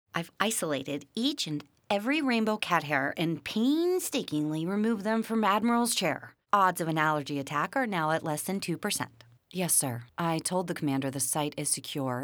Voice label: Animation VO